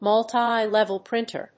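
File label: "multilevel printer", English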